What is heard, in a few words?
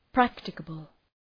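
"practicable", English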